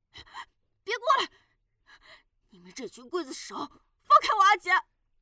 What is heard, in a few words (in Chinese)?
动漫 正太 反抗